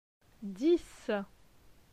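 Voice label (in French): "Dix", French; phonetique; les consonnes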